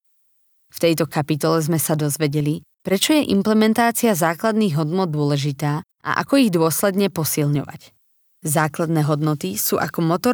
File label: slovak e-learning